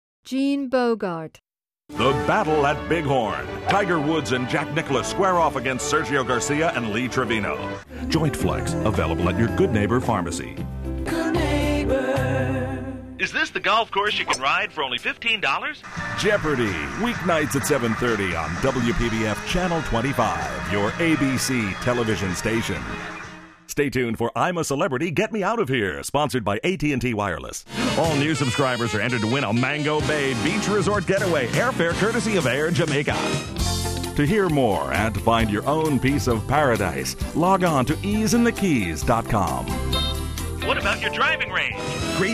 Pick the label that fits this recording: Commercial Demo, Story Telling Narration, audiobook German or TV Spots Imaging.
TV Spots Imaging